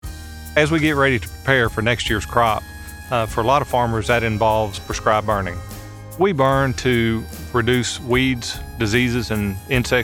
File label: Radio Spot